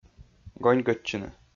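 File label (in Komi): "гоньгӧдчыны", Komi-Zyrian, male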